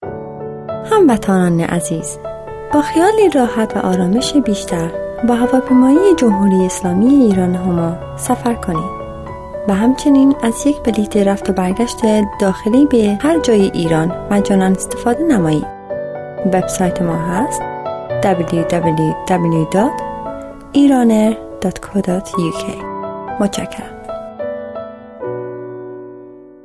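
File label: Farsi; Middle Eastern, Male, 20s-30s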